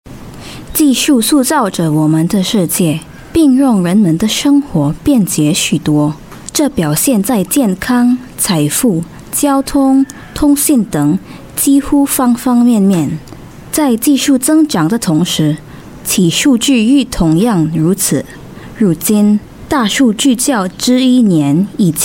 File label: chinese voice over female commercial